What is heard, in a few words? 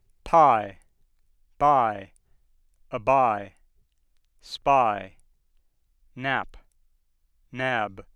English bilabials; Phonetics